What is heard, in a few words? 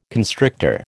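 "constrictor", American English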